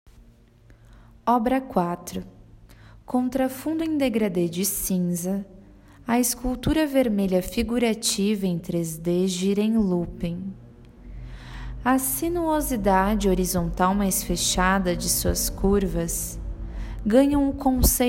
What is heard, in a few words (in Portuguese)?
Audiodescricao; Exposição; Galeria Virtual